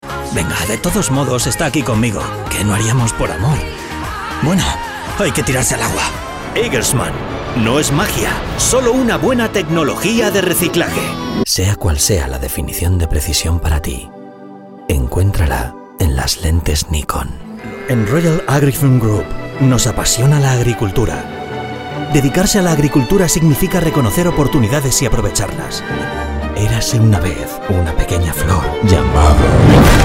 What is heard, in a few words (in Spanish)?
publicidad